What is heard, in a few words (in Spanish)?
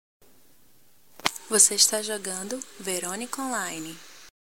nota de voz